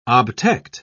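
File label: "obtect", British English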